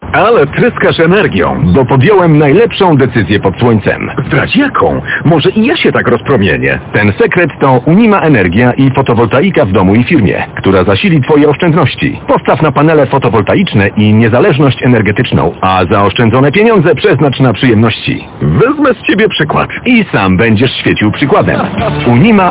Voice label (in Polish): reklama radio; unima energia